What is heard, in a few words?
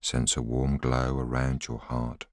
voiceover